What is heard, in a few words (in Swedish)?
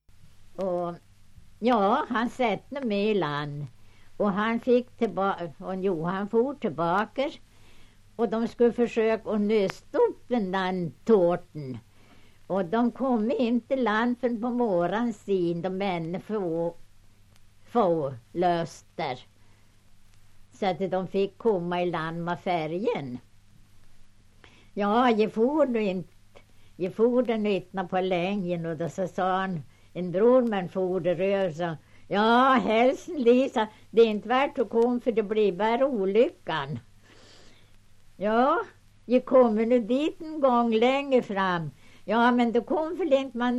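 Hackås Jämtland, dialekter; Folkminnen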